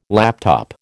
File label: "laptop", American